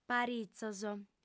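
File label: "paritzos", Sardinian, female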